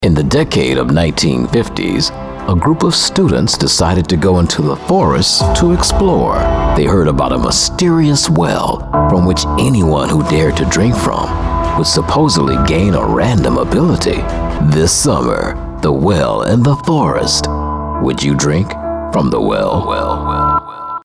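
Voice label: MOVIE TRAILER with music; Demo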